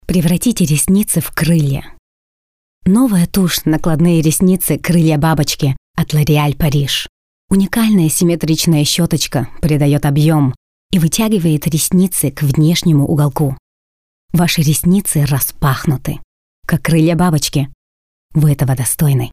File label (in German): werbung russisch; Muttersprache Deutsch, Russisch; loreal